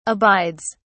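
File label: "abides", British English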